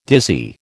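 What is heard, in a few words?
"dizzy", American English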